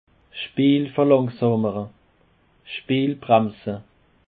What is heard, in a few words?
"speelbremse", Alsace